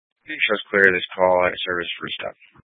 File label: Fire Dispatch